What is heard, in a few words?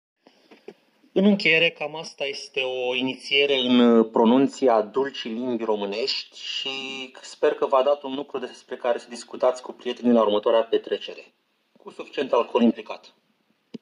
Interview